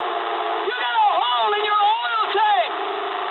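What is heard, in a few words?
damaged oil mood high, speech English; War Thunder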